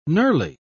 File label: "knurly", pronounce